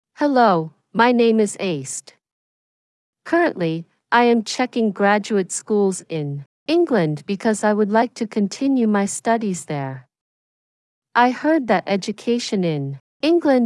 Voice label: Audiobook Voice